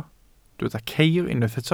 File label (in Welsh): "ceir", Welsh